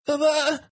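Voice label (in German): "aber", German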